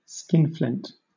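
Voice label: "skinflint" English, British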